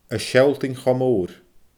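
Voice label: "a sealltainn cho moor", Scottish Gaelic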